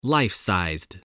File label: "life-sized", spelling